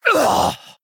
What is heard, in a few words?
Female Orc Death